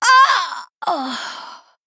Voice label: Female Human Death